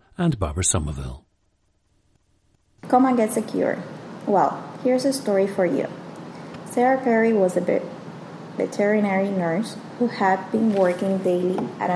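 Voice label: florida, International Dialects of English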